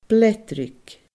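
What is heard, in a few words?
"bleadraig", Scottish Gaelic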